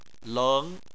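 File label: "leng", Chinese, second tone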